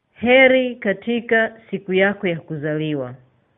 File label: birthday swahili